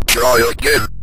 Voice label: "try again", Synth Voice; Kenney's Sound Pack; Synth Voice 1

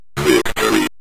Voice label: "victory", Synth Voice; Kenney's Sound Pack

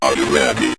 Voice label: are You Ready, Synth Voice; Kenney's Sound Pack

